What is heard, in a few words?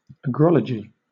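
"agrology", British English; pronunciations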